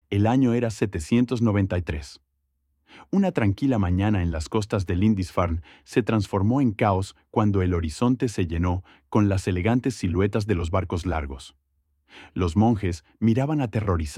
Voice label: Practice your Spanish speaking skills